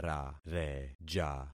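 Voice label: "rareja", concatenated syllables; Affixing experiment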